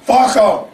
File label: fuck them tourettes, expletives